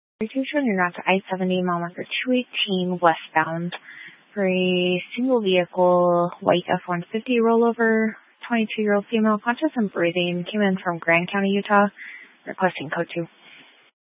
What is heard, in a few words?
Fire Dispatch, scanner